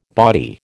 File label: "bawdy", American